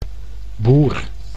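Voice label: "boer", Dutch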